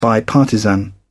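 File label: "bipartisan", British English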